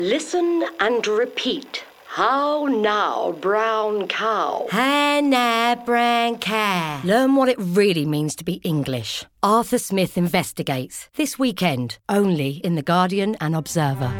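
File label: Brash, Bold, English; The Guardian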